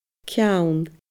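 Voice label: "ceann", Scottish Gaelic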